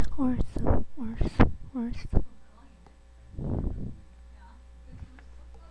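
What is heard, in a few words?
"also", spoken word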